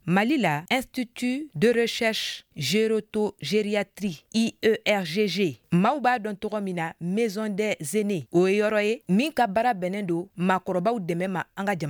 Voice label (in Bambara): magazine bamanankan